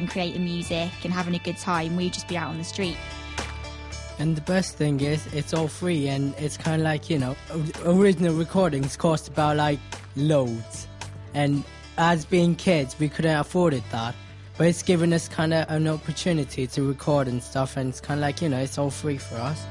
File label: studio vox pop